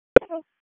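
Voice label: Police Southwest District Disp, radio; Raleigh; wake; p25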